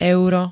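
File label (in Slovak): "euro", Slovenské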